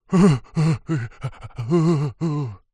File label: human male voice panic